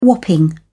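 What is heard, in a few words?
"whopping", British English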